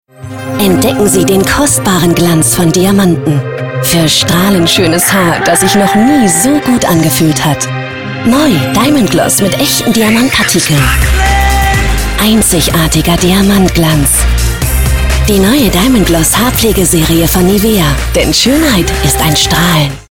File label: tv spot; nivea diamond gloss